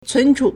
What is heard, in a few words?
"cun2chu3", Chinese